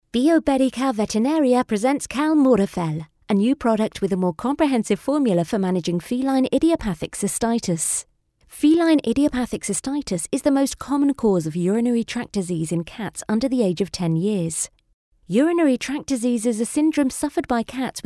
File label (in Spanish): narración inglés UK